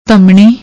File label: "dhamnni", Punjabi; ਧਮਣੀ